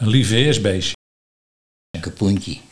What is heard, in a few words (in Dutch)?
"Kapoentje", dialect Nieuw lekkerlands